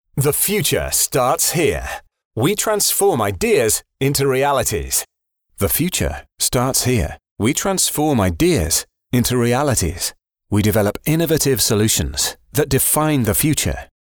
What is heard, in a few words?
english male